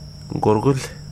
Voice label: "dry", Wahgi; lae unitech